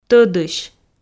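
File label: "тӧдысь", Komi, female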